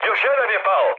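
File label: Serbian player killed enemy mood high, speech; War Thunder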